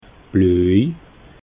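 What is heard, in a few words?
"bloi", Alsatian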